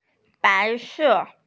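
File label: "bai2se4", Mandarin Chinese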